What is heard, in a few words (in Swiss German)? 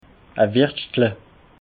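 "wirschtla", Alsatian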